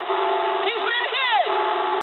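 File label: aircraft destroyed mood high, speech English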